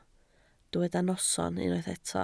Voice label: "noson", Welsh